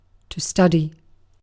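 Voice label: "study", British English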